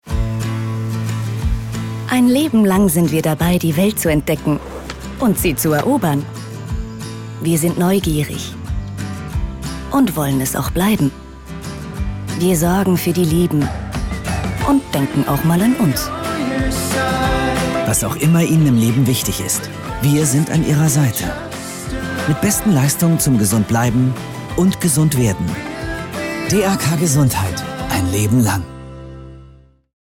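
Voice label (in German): Werbung Freundlich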